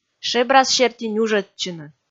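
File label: "шебрас серти нюжӧдчыны", Komi, female; kpv audio dict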